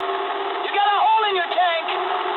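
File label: English tank damaged mood low; War Thunder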